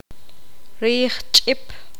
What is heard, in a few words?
poqomam; pluma